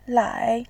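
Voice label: "lai3", Mandarin Chinese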